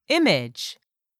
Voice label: "image", English; 3000 words